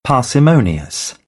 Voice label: "parsimonious", British English